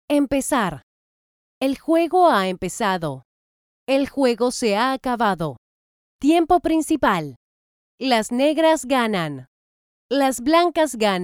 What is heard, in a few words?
spanish latin american video games